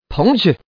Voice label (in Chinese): peng, putonghua, man; mahjong paohuzi